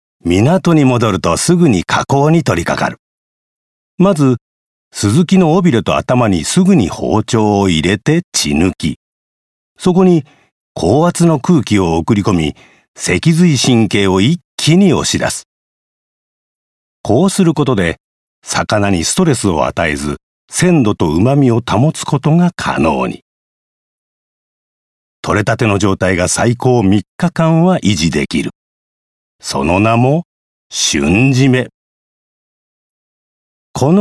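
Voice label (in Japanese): 技術紹介ナレーション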